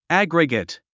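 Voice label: "aggregate", English word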